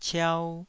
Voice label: "ciau2", taiwanese